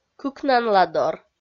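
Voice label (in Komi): "кыкнанладор", Komi, female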